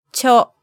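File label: "cho", Japanese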